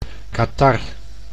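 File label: "catarre", Dutch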